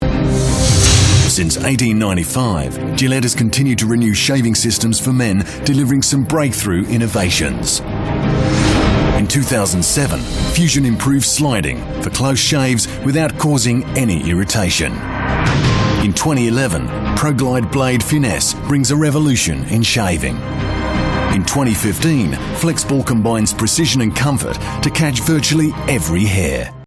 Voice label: Australian commercial, voiceover; Gillette

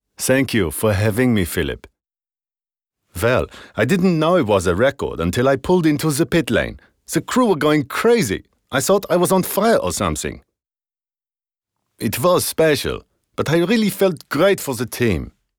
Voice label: German accent, voiceover; BMW

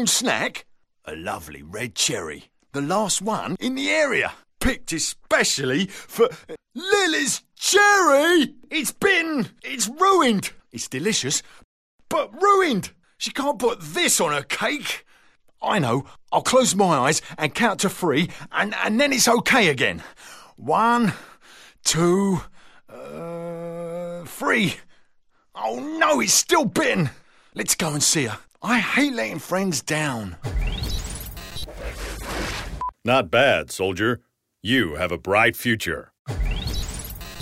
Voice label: VO showreel animation

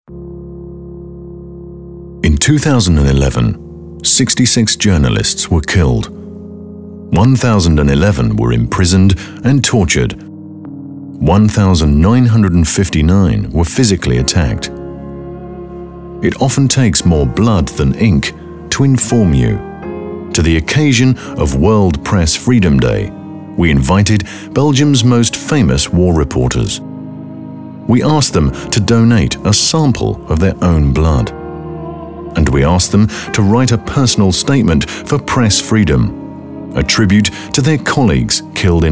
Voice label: casefilm low voice

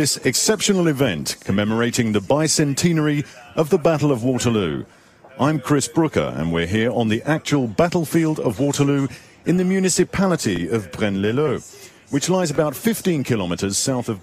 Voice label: VO showreel live presentation

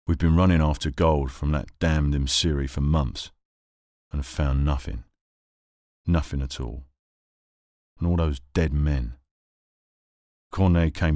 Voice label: Soldier cockney accent

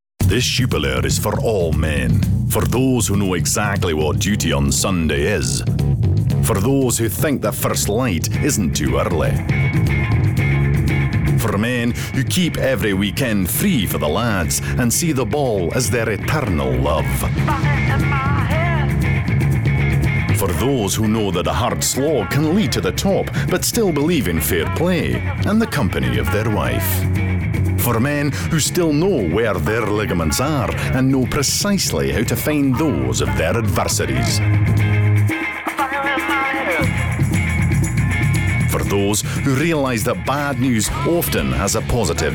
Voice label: Scottish accent; Jupiler

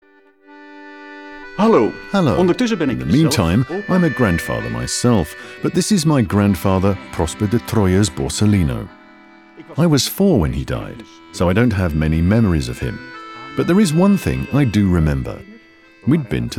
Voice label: AudioGuide; Het Kunstuur Hasselt De Troyer

